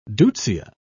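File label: "deutzia", British English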